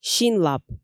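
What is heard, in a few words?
"синлап", Komi, female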